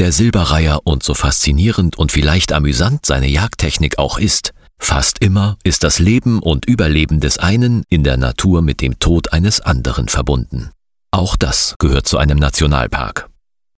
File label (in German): doku Wattenmeer Hauptsprecher